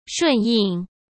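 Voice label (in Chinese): "顺应", Mandarin Chinese; chinese flashcards; hsk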